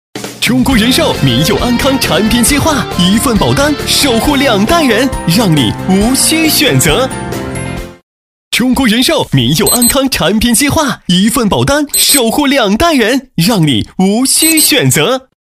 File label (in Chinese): 男 广告活泼 年轻活泼; 厚重有力; 轻松活泼; 中国平安民佑安康产品